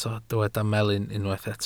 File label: "melyn", Welsh